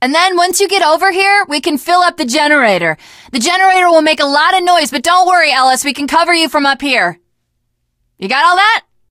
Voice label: initial meeting, teen girl; video game; source engine; left4dead2; survivor

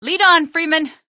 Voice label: lead on, female; Source Engine; video game; npc

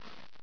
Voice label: "period", vox; source engine; hl1; video games

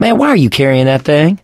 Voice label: world generic, mechanic; source engine; left 4 dead 2

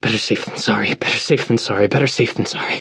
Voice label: radio initial broadcast; left4dead; churchguy; Source engine

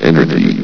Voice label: "energy", vox; Source Engine; bshift

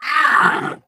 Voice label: angry; Portal 2; Source Engine; video games; ballbot

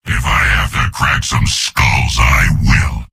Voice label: soldier jeers; source engine; tf; mvm

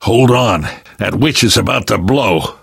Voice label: witch getting angry, survivor voice

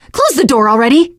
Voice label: close the door, teen girl, survivor voice; source engine; left 4 dead 2

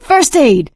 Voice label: spot first aid, survivor voice; source engine; left 4 dead 2; producer

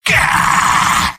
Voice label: sniper jeers; video games; source engine; tf; mvm

